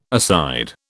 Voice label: "aside", British